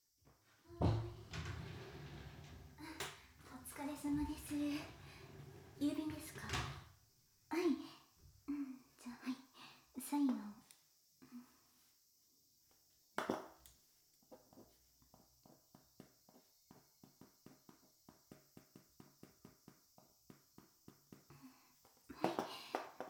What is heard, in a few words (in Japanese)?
郵便と催眠解除, ASMR